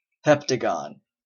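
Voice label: "heptagon", US English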